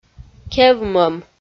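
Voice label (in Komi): "кевмӧм", Komi, female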